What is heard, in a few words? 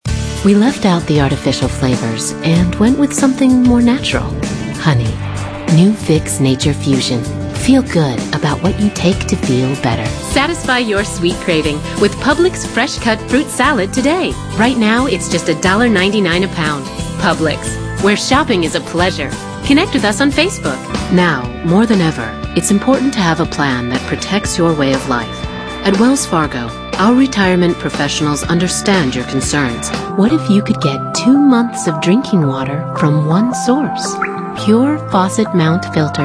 female, late30s early40s clean